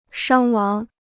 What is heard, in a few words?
"伤亡", Chinese